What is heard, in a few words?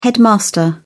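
"headmaster", British English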